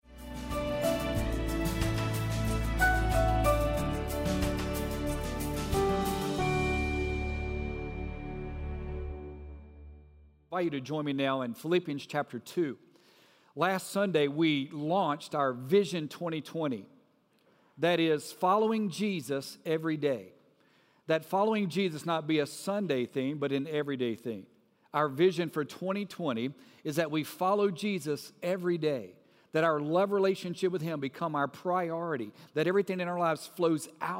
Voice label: Sermon English